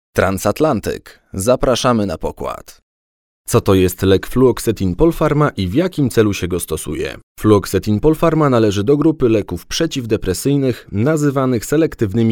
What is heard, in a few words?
Polish; foreign languages